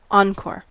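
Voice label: "encore", US English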